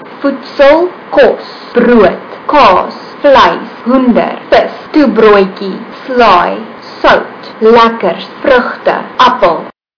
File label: afrikaans; food